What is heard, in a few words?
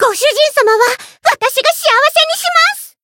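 Voice variable chant, Japanese; myungwha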